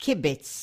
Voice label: "kibbitz", Yiddish